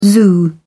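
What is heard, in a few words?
"zoo", British English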